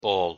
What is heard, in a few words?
"ball", English